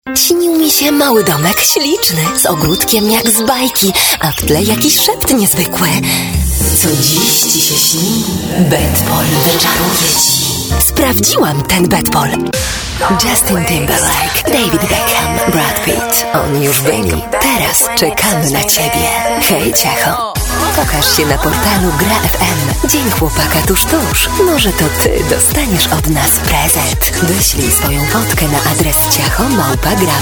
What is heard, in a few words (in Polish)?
REKLAMA